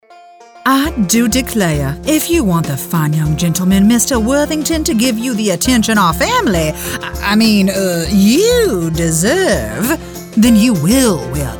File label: Animation Demo